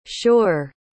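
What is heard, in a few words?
"shure", English pronunciation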